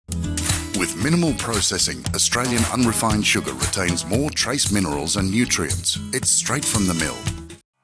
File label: Australian Unrefined Sugar; CSR Sugar